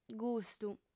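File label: "gustu", female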